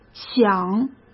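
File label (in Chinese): "响", Mandarin Chinese; chinese flashcards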